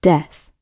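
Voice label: "death", British English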